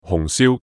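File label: "紅燒", Cantonese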